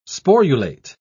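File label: "sporulate", British English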